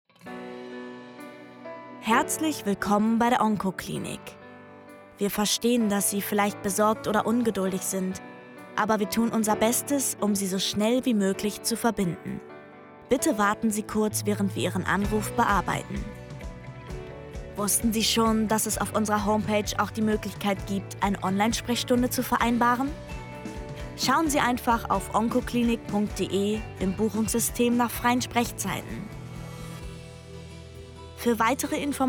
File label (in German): Warteschleife; Onkoklinik